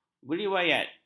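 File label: "beriwayat", Malay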